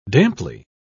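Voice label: "damply", British English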